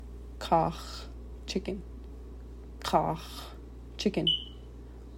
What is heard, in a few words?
chicken, tli; food